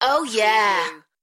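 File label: British female Oh yeah; beanstalk audio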